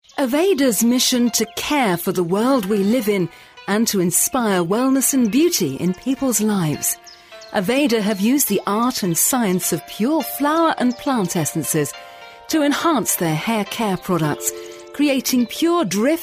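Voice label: British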